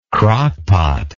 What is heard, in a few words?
"crockpot", English